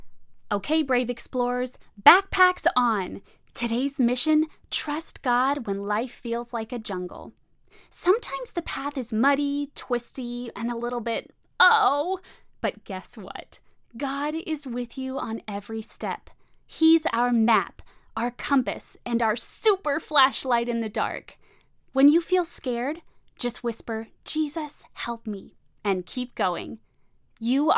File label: christian kids; reel